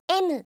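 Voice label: alphabet, male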